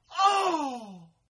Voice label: "Oh", male; Exclamations; Voice

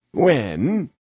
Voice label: "When" Male, exclamation; Voice Box

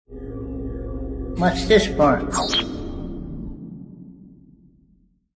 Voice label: Whats This For, Male; Talking Sound Effects; VoiceBox; Twistedtracks

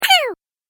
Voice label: Cartoon Game Voice "Pow"

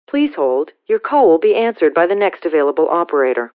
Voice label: Call Will Be Answer, Telephone operator, Female

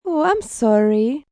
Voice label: Oh I'm Sorry, female; Etiquette

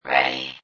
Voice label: Robot Voice "Ready"; Robot Sound Effects; Lynne Music

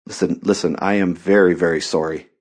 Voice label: Listen Very Sorry, Male; Talking Sound Effects

